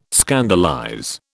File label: "scandalize", British